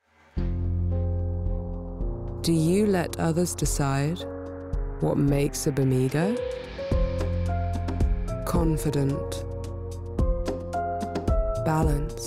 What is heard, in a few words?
UK; Bamigo